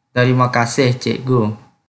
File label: Kelantan-Pattani Malay